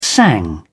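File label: "sang", British English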